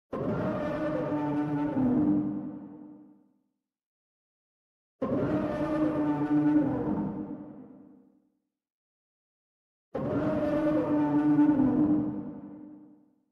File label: doubtful